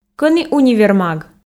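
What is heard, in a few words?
"кӧні универмаг", Komi, female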